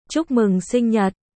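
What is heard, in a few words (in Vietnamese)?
Chuc mung sinh nhat, Vietnamese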